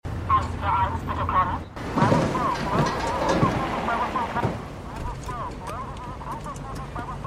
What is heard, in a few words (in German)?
Funkstimme; Moskitokueste